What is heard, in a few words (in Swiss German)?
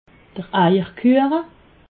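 "eier kueche", Alsatian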